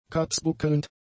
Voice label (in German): "katzbuckelnd", German; adjectives